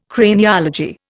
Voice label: "Craniology", Indian